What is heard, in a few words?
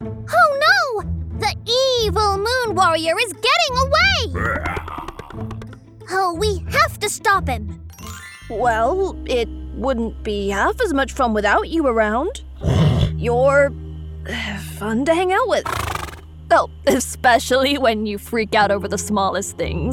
Kid